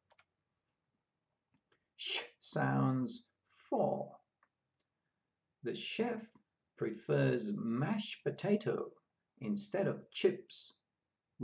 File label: SH sounds, pronunciation of australian english